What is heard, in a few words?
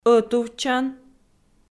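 "ӧтувтчан", Komi, female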